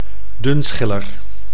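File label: "dunschiller", Dutch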